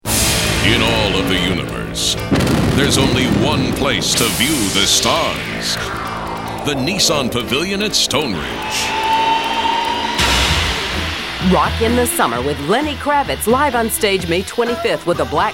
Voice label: Concert Spot, Powerful; TV; WJLA TV